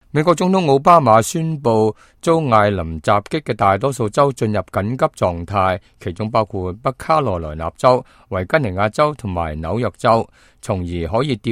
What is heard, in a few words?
cantonese, news